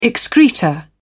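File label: "excreta", British English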